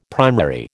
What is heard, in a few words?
"primary", American